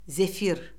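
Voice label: "zefir", Azerbaijani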